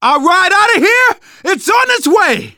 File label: radio used generic; Left 4 Dead 2; left4dead2 dlc3